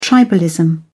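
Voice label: "tribalism", British English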